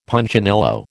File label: "Punchinello", American